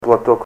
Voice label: handkerchief, Ninilchik Russian; clothes

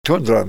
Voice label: tundra, Ninilchik Russian

